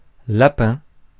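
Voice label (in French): "lapin", French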